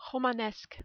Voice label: "romanesque", French